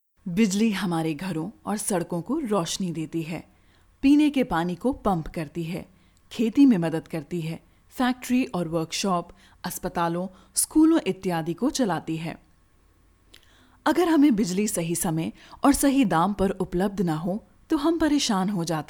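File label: documentary hindi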